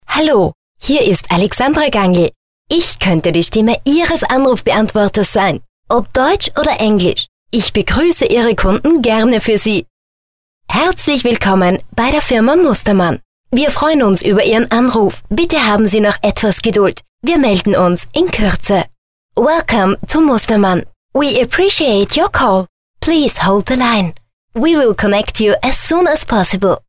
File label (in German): Sprecher Telefonansagen